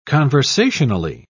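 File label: "conversationally", British English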